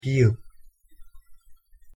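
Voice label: "ᄇ", Korean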